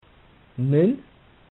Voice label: "min", Amharic

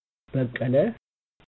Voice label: "bakkala", amharico

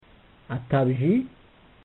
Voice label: "atabzhi", Amharic

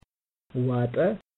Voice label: "wata", Amharic

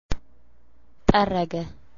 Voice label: "tarraga", Amharic

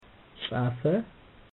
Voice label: "safa", amharico

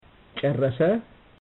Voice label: "charrasa", Amharic

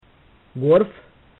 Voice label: "gorf", Amharic

